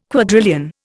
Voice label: "quadrillion", Indian